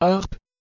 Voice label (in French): "harpe", French